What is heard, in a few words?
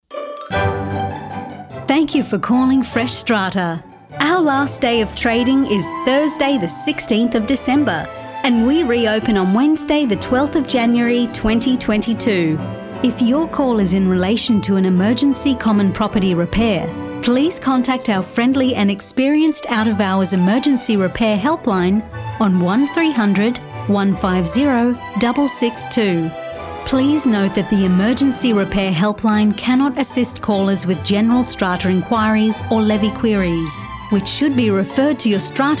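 Christmas Closure Phone On Hold; Fresh Strata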